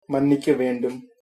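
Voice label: excuse me, tamil, male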